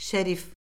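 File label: "şerif", Azerbaijani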